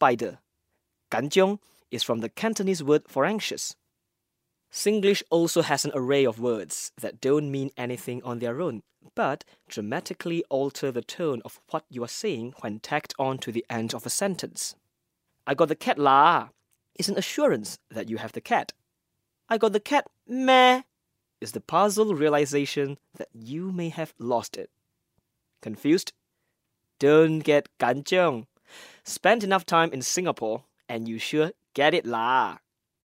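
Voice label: Malay, Accented English; GoLocalise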